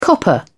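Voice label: "copper", British English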